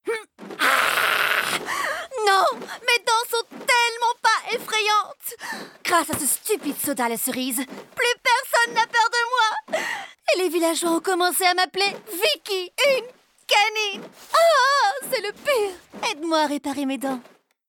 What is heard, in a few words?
Fr; Sonicare Philips